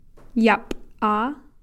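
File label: "people", Takelma word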